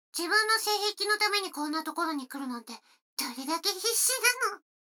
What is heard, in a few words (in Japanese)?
女の子台詞, ASMR